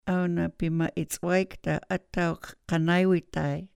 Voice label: awna pima'its'waykta ataw k k anaywitay, sahaptin